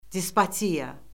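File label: "despotiya", Azerbaijani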